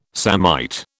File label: "samite", British